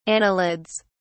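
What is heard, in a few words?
"annelids", British English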